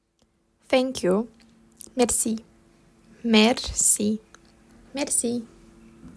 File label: "Thank you", Romanian